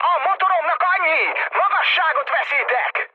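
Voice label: Hungarian damaged engine mood high, speech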